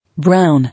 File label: "Brown", English